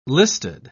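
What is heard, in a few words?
"listed", British English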